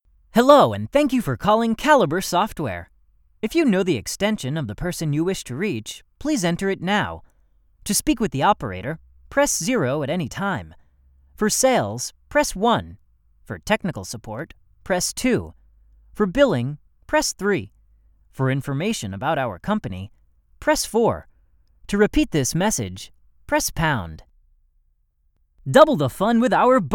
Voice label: ivr